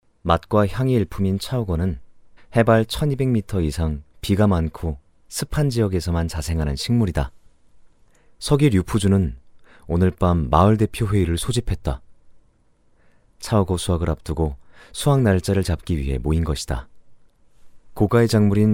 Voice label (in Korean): 서정적인 대학생 느낌 여행 다큐